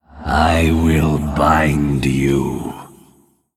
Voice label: Male Ethereal Attack

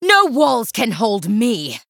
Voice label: Female Human Play

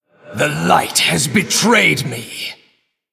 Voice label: Male Human Play

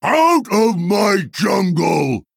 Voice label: Male Tortollan Play

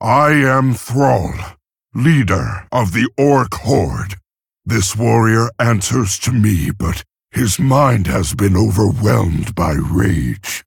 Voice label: Male Orc Exchange

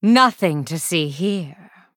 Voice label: Female Blood Elf Emote

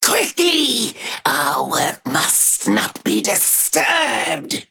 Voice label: Female Sethrak Play

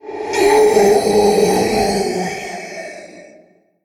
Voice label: Male Darkness Death

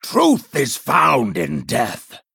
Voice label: Male Vampire Play

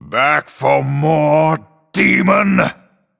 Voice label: Male Night Elf Start

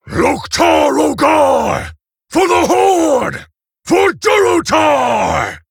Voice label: Male Orc Ogre; Mission7 Exchange C

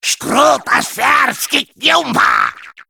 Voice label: Male Sporeling Play

